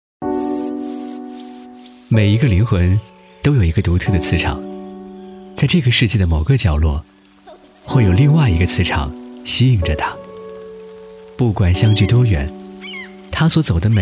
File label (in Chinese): 男,旁白独白 爱情微电影 婚礼视频